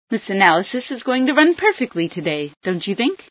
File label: perfect day, female